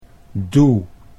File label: "doe", Dutch